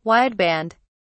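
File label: "wideband", British English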